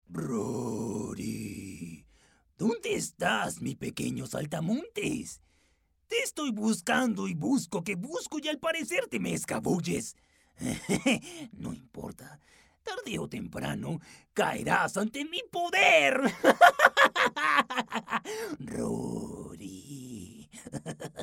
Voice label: SPANISH